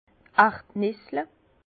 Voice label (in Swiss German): "erdnissle", Bas Rhin; vin; cacahuètes